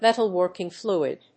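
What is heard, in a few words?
"metalworking fluid", English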